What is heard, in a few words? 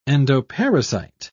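"endoparasite", British English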